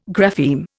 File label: "grapheme", Indian